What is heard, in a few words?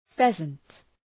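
"pheasant", English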